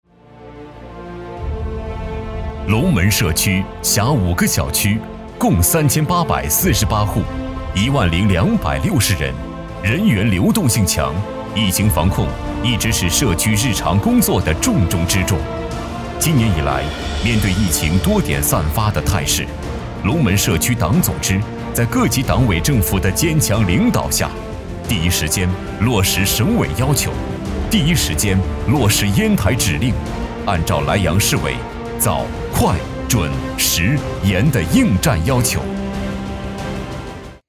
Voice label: 男 沉稳 社区工作汇报

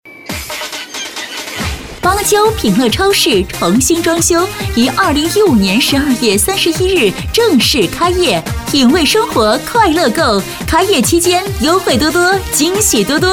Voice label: 促销广告 超市开业 热情促销

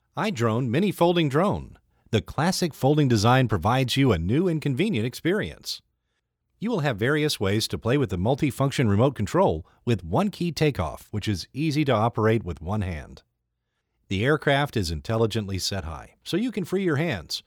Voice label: 迷你折叠无人机 英文稿; Eyedrone迷你折叠无人机

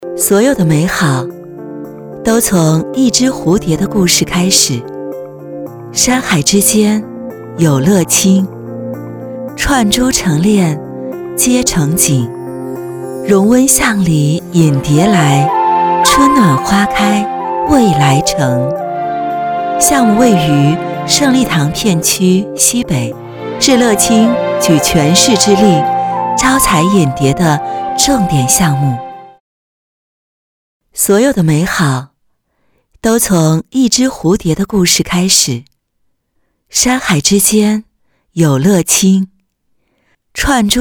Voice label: 女 独白; 乐清

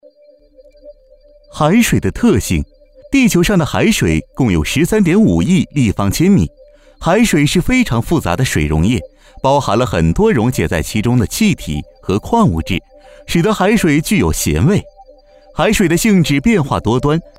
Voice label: 男 专题 轻松讲解

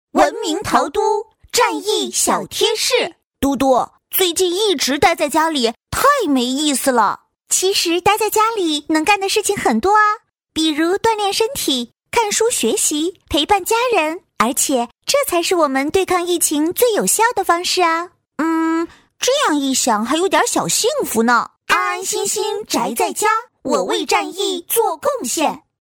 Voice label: 男童女童合录

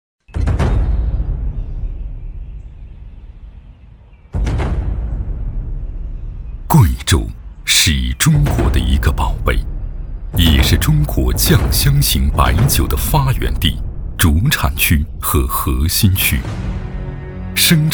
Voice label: 男 宣传 酒类 片 历史大气; 绵柔酱香

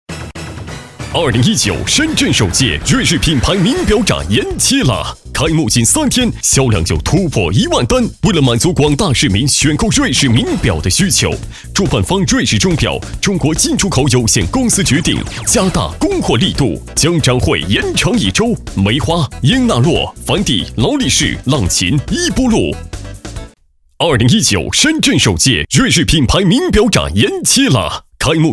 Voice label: 男 促销 非常激情; 瑞士品牌名表展

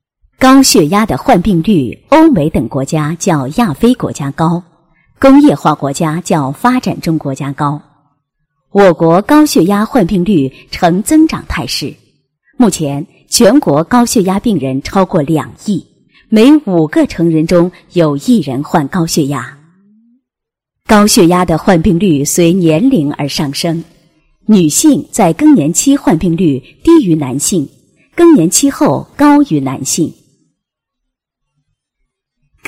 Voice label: 女47-解说; 高血压发病率

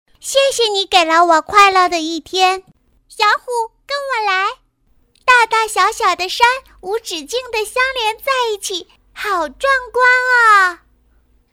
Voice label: 童声 女童

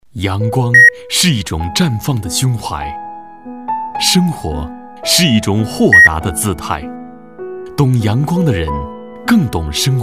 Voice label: 男, 温馨房产

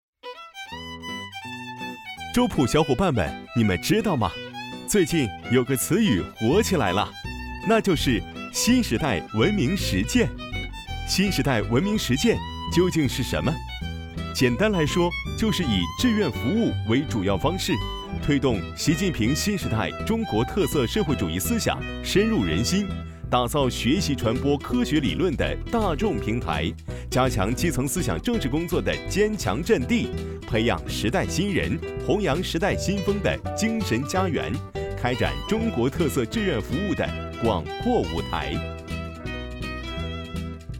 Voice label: 男 MG 新时代

